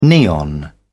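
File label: "neon", British English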